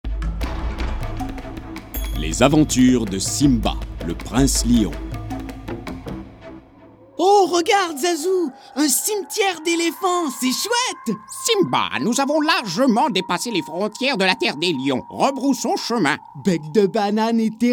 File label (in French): voix cartoon